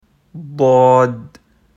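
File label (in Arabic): "ضاد" Arabic; quiz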